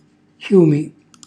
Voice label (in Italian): "xjumi", Calabrese; χjùmi; fiume; cucinacalabrese; glossario